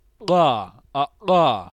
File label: voiced uvular implosive